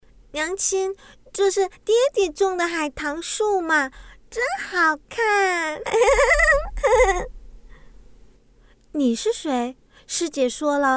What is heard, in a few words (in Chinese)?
幼萝少女大小姐御姐女王御妈声展